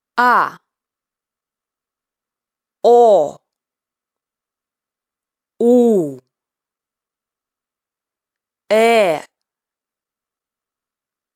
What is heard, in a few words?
russian vowels; grammar